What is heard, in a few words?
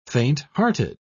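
"faint-hearted", British English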